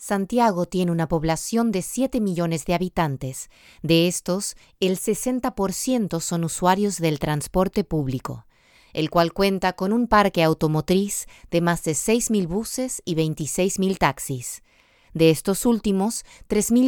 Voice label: Home Studio Read, Spanish-Latin (Argentina), Female; Studio, 20s-30s